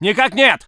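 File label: voice soviet infantry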